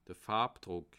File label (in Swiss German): "farbdruck", alsace